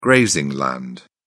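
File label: "grazing", British English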